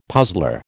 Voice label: "puzzler", American